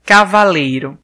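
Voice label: "knight", Brazilian Portuguese; gcompris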